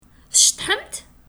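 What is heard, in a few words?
Kabyle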